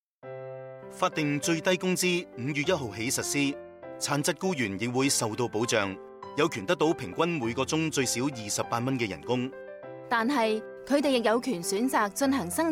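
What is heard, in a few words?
Cantonese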